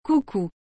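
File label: "Coucou", French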